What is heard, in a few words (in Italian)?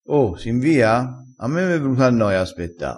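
"invia", crespinese dialettale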